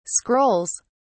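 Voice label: "scrolls", British English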